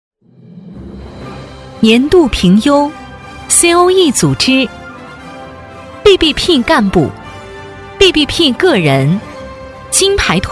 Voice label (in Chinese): 女, 年度颁奖, 大气昂扬; 质感知性; 沉稳大气